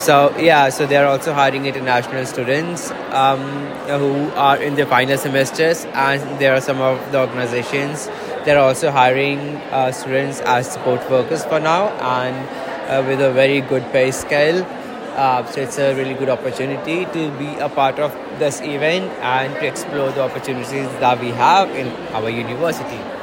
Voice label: Voice over